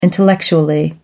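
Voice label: "intellectually", British English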